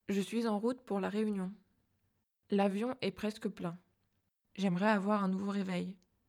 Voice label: long sad